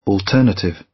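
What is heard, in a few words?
"alternative", British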